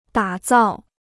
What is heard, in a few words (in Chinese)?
"打造", Chinese